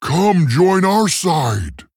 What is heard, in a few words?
Male Tauren In Game; Guff